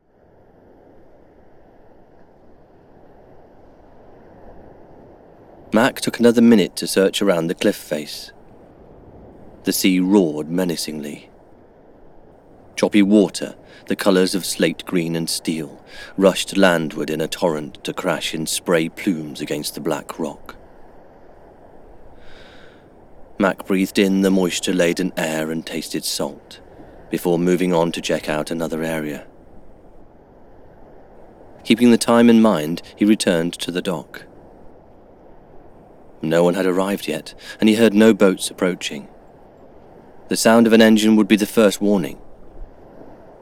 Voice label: Audiobook; Amazon Audible

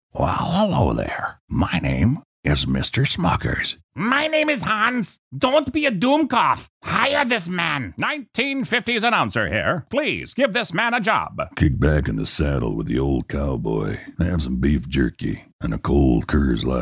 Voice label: Character

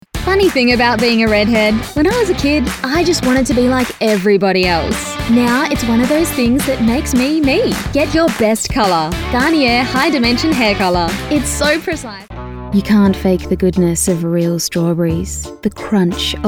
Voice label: Commercial

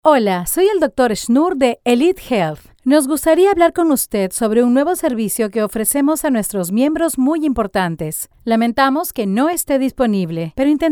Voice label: Home Studio Sound

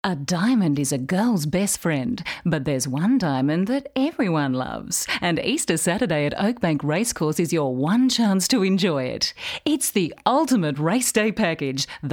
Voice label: Medium Sell

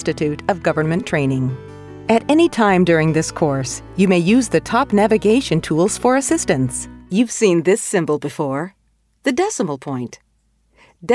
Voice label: E Learning English

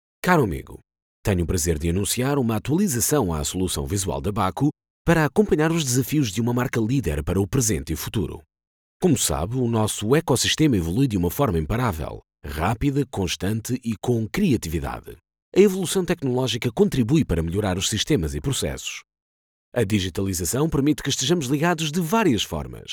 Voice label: European Portuguese; BAHCO

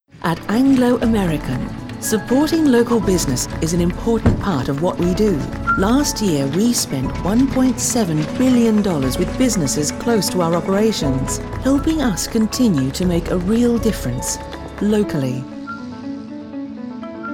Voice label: corporate video explainer

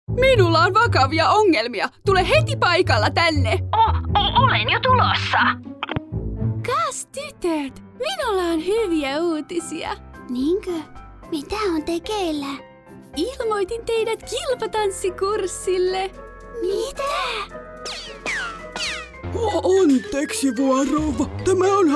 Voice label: Character Finnish-English

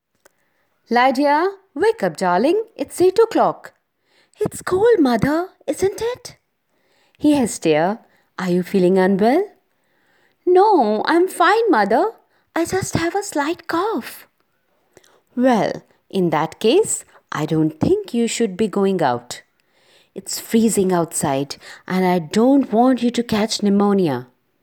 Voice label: drama english

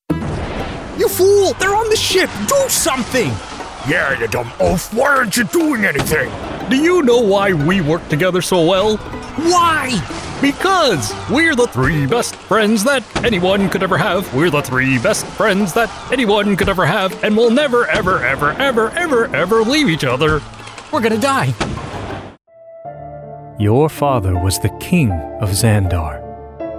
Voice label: cartoon character animation anime voiceover

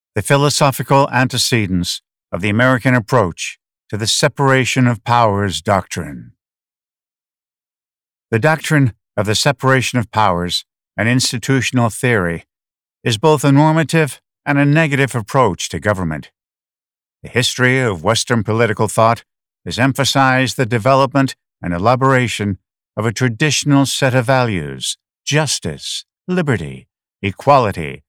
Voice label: Audio Book; The Founding Fathers

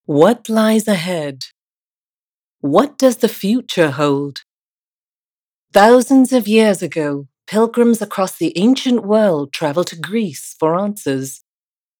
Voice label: movie trailer; Oracle

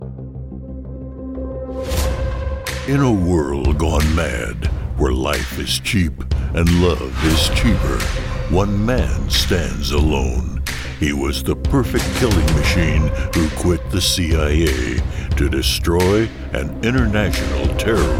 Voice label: Movie Trailer; Don LaFontaine Style

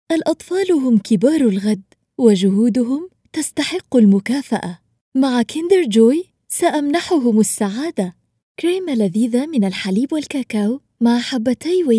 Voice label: Arabic commercial

